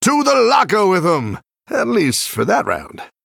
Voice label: Male Human Combat Win

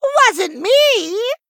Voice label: Male Gnome Trigger

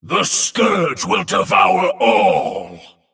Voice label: Male Giant Beetle Play

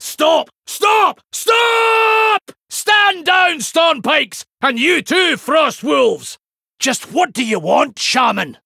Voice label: Male Dwarf In Game Victory Pre Explosion

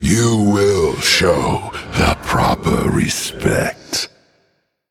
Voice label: Male Undead; Emote Winstreak

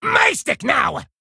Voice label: Male Kobold Play

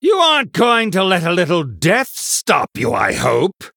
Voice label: Male Human Combat Loss

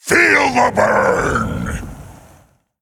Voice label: Male Elemental Attack

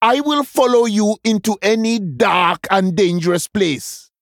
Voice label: Male Troll Picked

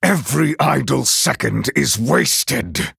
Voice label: Male NightElf Idle

